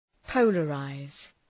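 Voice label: "polarize", English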